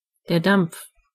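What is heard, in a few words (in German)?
"steam", German; gcompris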